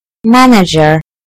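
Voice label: "manager", British English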